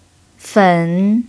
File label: "fen3", Mandarin pinyin